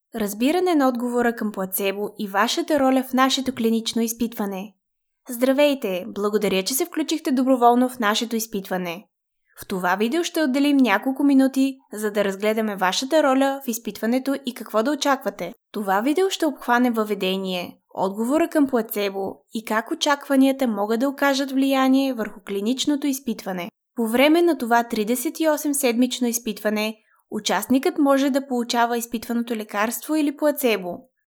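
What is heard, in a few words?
Bulgarian female corporate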